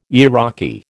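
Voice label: "Iraqi", American English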